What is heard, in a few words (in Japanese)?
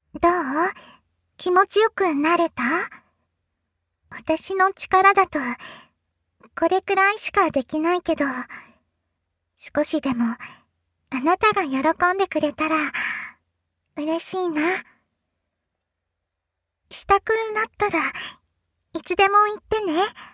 安眠誘導, ASMR; 効果音なし